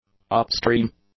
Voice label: "upstream", english; adjectives

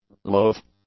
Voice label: "loath", english; adjectives

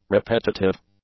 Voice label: "repetitive", English; adjectives

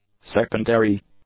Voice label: "secondary", English; adjectives

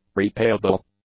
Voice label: "repayable", English; adjectives

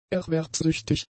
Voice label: "erwerbssüchtig", German; adjectives

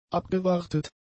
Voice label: "abgewartet", German; adjectives

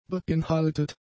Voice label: "beinhaltet", German; adjectives

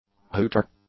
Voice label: "hooter", English; nouns

